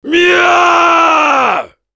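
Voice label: Heavy battlecry, French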